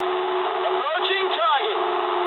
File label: eng near waypoint mood low, speech eng